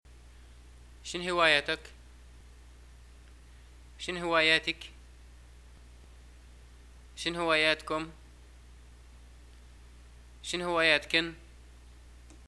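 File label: what are your hobbies, Libyan Arabic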